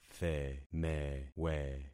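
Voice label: "themewe", concatenated syllables; Affixing experiment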